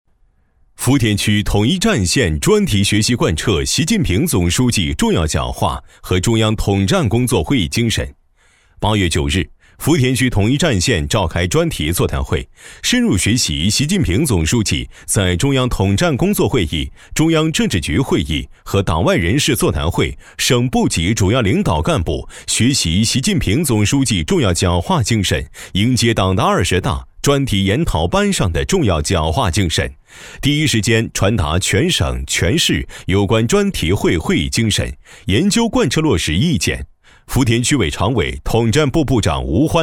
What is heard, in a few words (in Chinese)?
男 新闻, 年轻浑厚 自然诉说; 会议精神